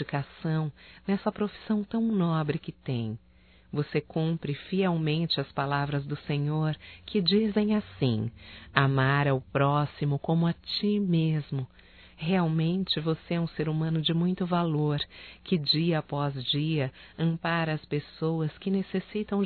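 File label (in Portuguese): ENFERMEIRA (Feminino), Telemensagens, Homenagens; Telemensagens 2017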